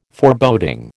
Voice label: "Foreboding", American